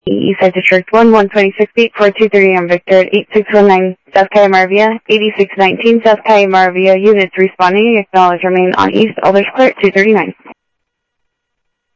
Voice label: Sheriff Common, scanner audio trunk; MCSO - Info Sheriff - Common; ThompsonPeakScot